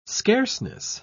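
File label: "scarceness", British English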